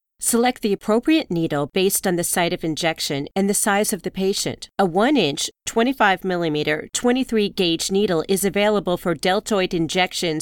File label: eLearning